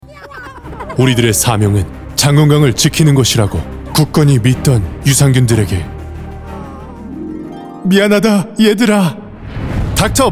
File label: Korean promos